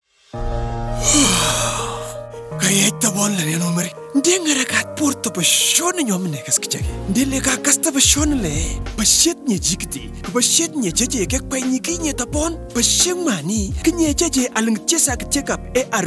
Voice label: HIV Spot Kupsabiny; Viral Load Suppression; radio spot